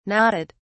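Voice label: "nodded", British English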